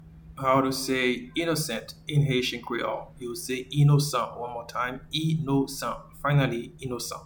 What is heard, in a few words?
"Innocent" in Haitian Creole, "Inosan"